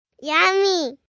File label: Yummy, Reaction, 1 boy, 4 yrs.; Talking; VoiceBox